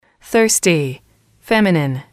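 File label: thirsty, female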